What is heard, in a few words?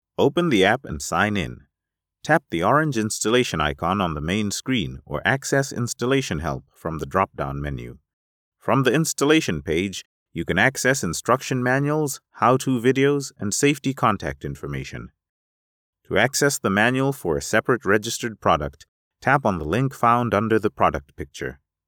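African English Male sample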